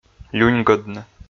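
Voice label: "люньгӧдны" Komi, male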